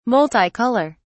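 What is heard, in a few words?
"multi-color", English, British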